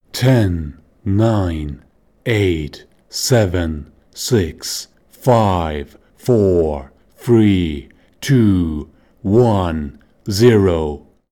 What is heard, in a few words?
Male Important Countdown